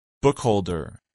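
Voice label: "bookholder", English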